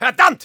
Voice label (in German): negative vocalization; Soldier